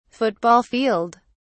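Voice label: "football field", British English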